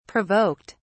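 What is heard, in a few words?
"provoked", British English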